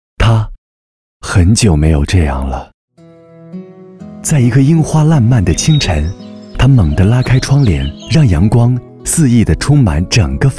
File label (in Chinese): 男 广告 柔和温暖; 布衣广告